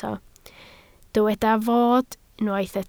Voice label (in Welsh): "fod", Welsh